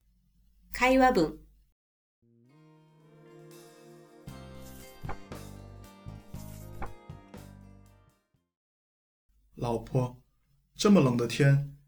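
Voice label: conversation; 会話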